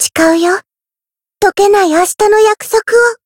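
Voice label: Voice variable chant, Japanese; yukino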